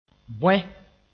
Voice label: "bõe", moore

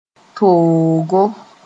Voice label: "toogo", Mooré

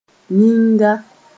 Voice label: "yĩnga", Mooré

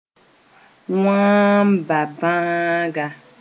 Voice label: "wãamba bãaga", Mooré; kwashiorkor

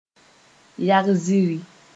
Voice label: "yag zĩri", Moore

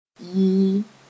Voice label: "yɩɩ", Mooré

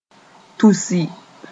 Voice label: "tusi", moore

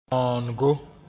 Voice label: "bãoonego", Mooré

